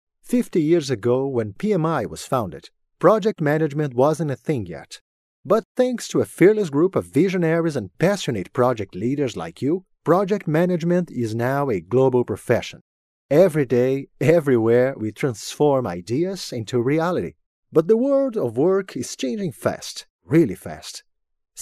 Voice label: ENGLISH